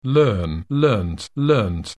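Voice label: "learn", English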